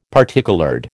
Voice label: "particoloured", American

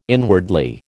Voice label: "inwardly", American

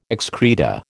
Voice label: "excreta", American

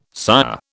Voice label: "sire", British

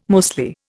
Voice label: "mostly", Indian

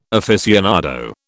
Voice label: "aficionado", British

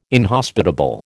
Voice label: "inhospitable", American

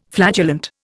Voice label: "flatulent", Indian

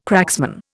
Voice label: "cracksman", Indian

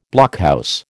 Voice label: "blockhouse", American

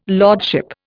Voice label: "lordship", Indian

